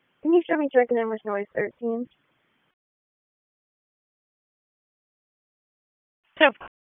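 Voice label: Raleigh Police Southeast District Dispatch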